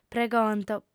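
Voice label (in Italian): "pregonto", lingua sarda, fem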